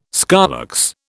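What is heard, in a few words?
"scolex", British English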